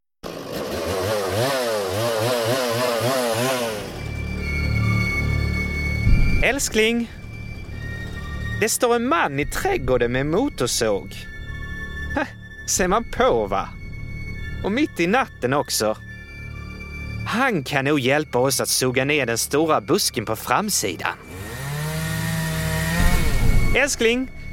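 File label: Swedish, Commercial; GoLocalise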